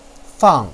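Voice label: "fang4", Mandarin Chinese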